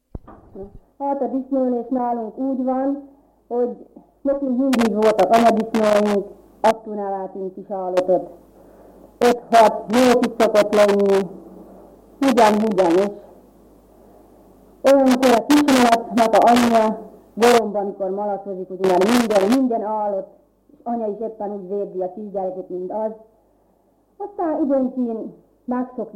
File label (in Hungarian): nyelvjaras gyujtesek